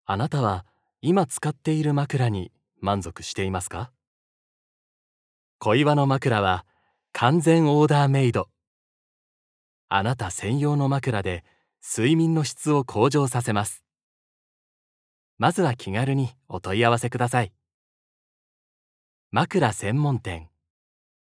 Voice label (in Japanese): 落ち着き NA